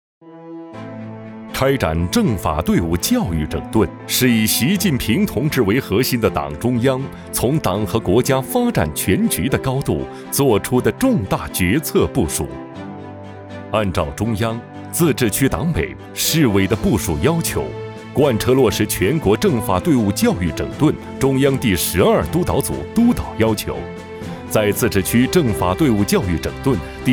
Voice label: 男 教育整顿专题; 贺州市法院系统开展队伍教育整顿工作纪实

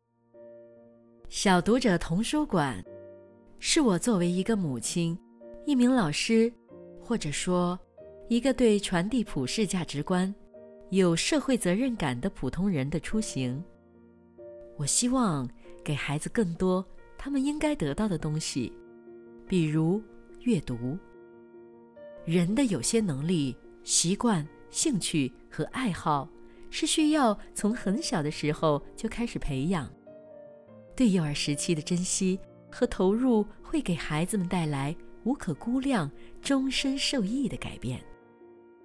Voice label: 女 有声读物 年轻妈妈女声

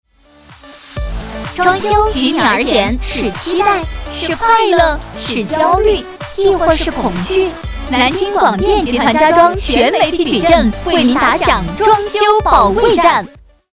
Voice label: 女 活力 装修家装广告

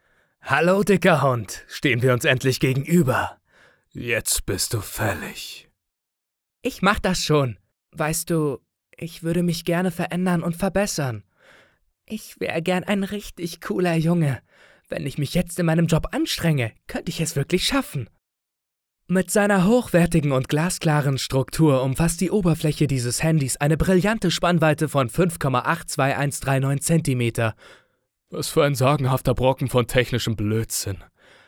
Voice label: 德语男-角色

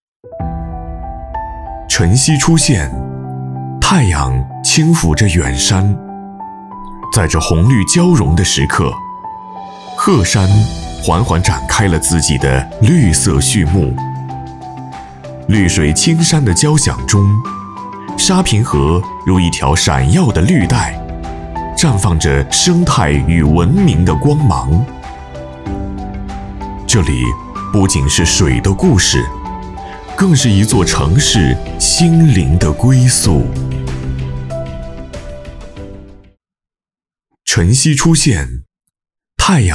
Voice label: 男 专题宣传 温暖大气稳重自然

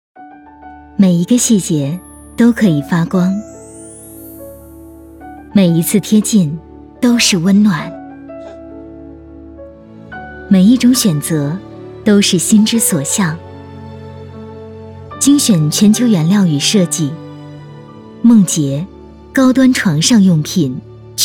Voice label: 女 广告 亲和 家纺; 梦洁家纺

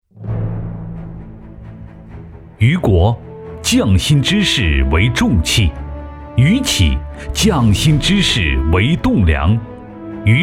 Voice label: 男 企业宣传; 湖南联通

